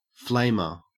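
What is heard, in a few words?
"flamer", Australian English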